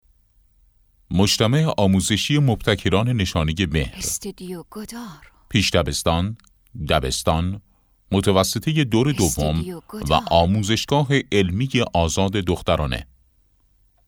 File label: commercial